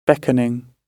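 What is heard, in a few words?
"beckoning", British English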